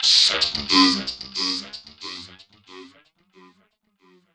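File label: effects talk, Funky Vocals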